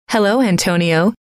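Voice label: hello, female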